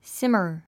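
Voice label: "simmer", English word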